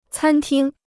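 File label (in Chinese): "餐厅" Chinese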